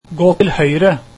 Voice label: Turn right, norwegian